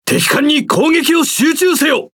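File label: Japanese Speech Quick Commands Enemy Ship; World Of Warships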